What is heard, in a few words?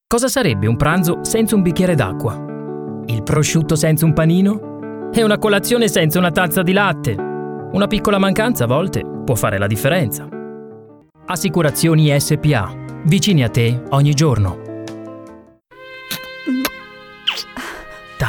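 Italian Schauspiel Dynamisch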